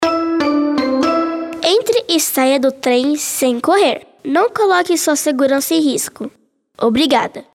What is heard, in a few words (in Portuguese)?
metrosp avisos sonoros criancas